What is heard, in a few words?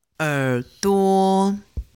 chinese pronunciation er